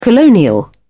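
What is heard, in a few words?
"colonial", British English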